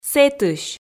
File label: "сэтысь", Komi